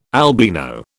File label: "albino", British